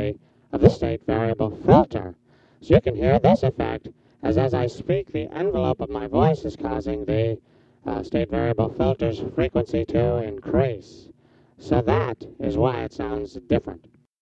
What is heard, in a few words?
microphone and multipler and ef; analog synth; ultimate expander